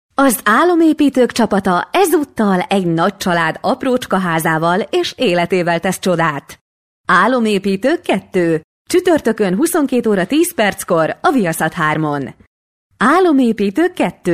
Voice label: hungarian f narration; voice demos